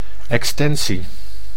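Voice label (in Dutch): "extensie", Dutch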